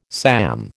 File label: "SAM", American